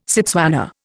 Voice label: "Setswana", Indian

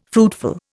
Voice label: "fruitful", Indian

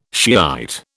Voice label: "Shiite", British

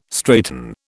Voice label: "straighten", British

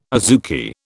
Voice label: "azuki", British

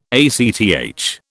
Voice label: "ACTH", British

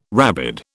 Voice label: "rabid", British

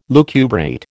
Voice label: "lucubrate", American

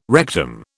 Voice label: "rectum", British

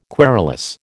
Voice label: "querulous", American

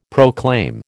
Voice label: "proclaim", American

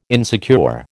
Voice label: "insecure", American

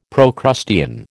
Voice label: "Procrustean", American

